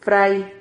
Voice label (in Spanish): "fray", Silabas